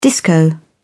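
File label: "disco", English, British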